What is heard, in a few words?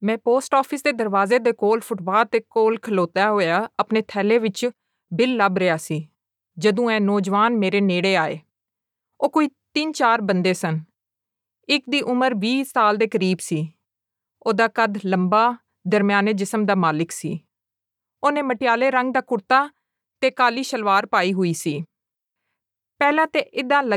Punjabi F Corporate; voice-over